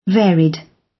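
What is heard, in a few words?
"varied", British; Crime and punishment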